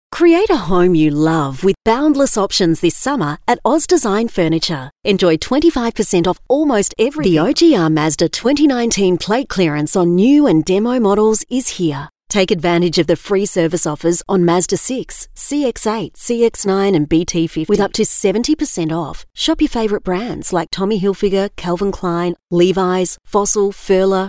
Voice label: soft sell